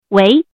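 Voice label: "wei2", Mandarin pinyin syllable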